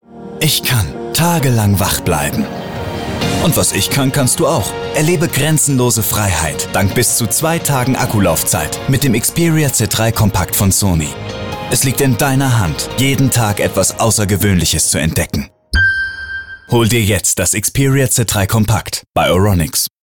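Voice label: German, commercial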